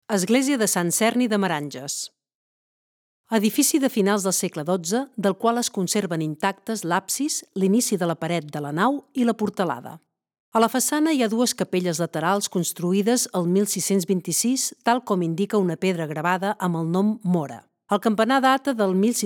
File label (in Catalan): locucio catala; sant serni meranges